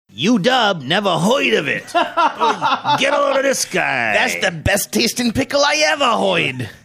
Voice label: saying u dub never heard of it exaggerated new york accent